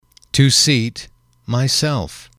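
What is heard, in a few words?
"to seat myself", English, male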